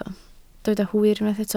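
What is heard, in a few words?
"hwyr" Welsh, spoken word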